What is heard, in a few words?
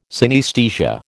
"synaesthesia", American